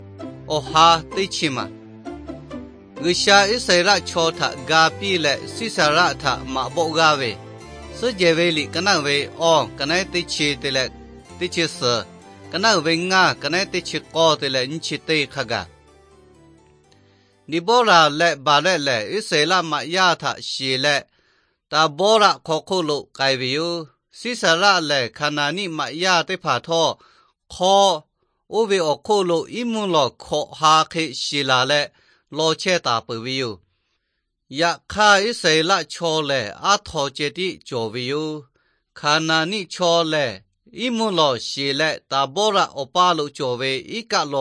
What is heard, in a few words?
victory through god lahu na, nt drama; lahu thailand bible society